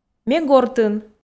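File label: Komi sentences, female; ме гортын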